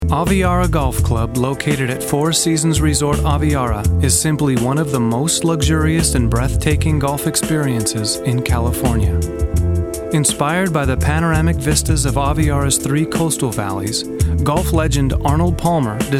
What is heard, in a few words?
voiceovers; Four Seasons Resort Aviara (male)